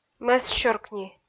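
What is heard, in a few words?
"мӧссёркни", Komi-Zyrian, female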